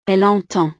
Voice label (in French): "elle entend", frances